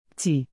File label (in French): "p'tit", Canadian French; Québec; (small)